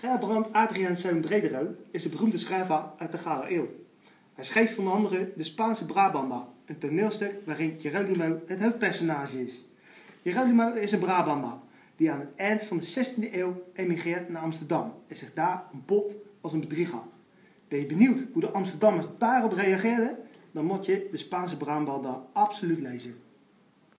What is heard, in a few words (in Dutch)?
Fragment Haags